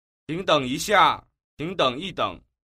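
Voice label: "qing deng", Chinese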